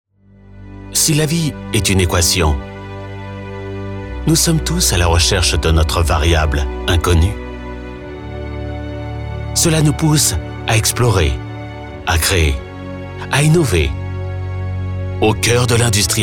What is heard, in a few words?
Corporate, Inspirational, French Male Voice; Boeing